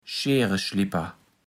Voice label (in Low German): "Scheereschlipper", platt